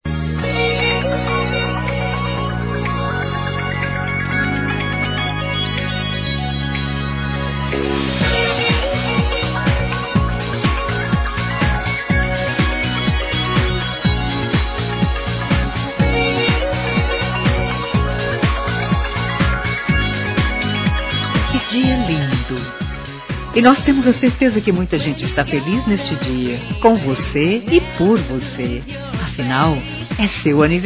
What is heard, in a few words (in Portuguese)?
IRMÃ PLURAL F; Aniversários; Aniversários diversos; fem